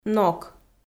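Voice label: "нок", Komi, female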